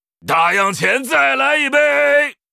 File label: Pandaren brewmaster pissed, zh